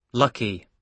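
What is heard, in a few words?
"lucky", British English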